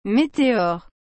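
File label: "meteore", French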